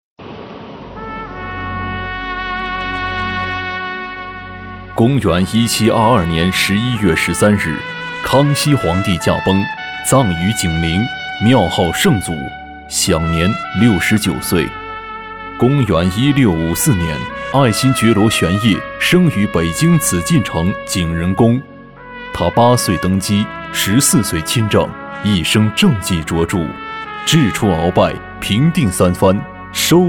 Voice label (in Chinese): 男 纪录片 沉稳 大气 有力 磁性; 康熙